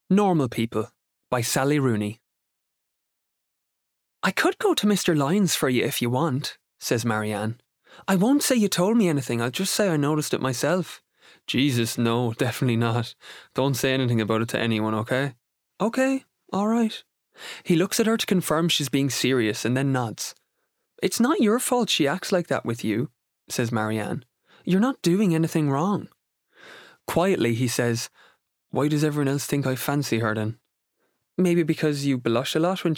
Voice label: Audiobook